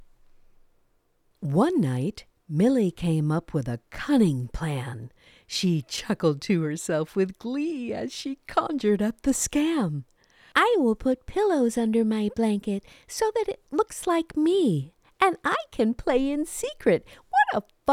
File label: Bedtime Stories for Kids